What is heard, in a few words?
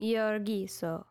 female work land; hellenes